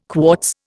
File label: "quartz", Indian